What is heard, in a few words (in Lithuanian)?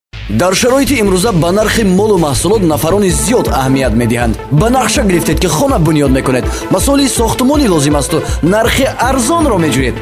(VYR) Uzbeku balsas; vyras